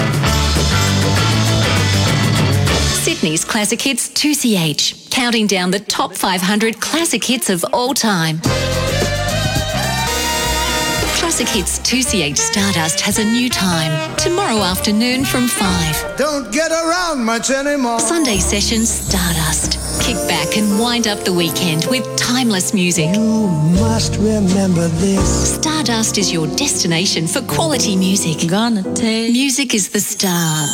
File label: Radio TV Imaging